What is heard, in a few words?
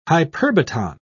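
"hyperbaton", British English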